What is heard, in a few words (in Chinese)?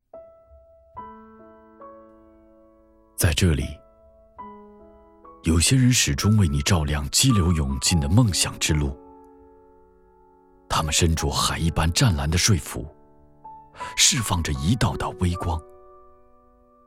男 内心独白